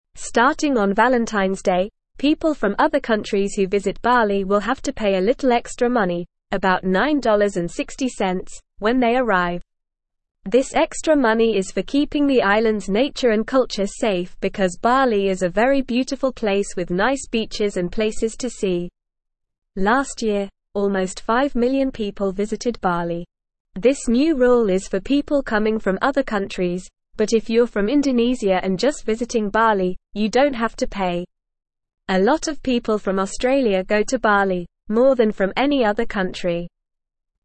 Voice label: English NORMAL Reading; New Money Rule for Visitors to Bali